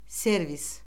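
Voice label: "servis", Azerbaijani; Orfoqrafiya Lüğəti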